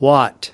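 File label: "watt", US English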